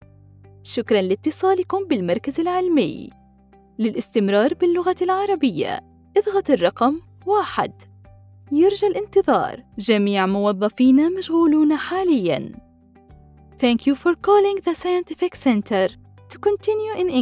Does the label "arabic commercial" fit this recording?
Yes